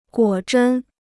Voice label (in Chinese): "果真", Chinese